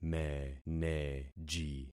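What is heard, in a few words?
"meneji", concatenated syllables; Affixing experiment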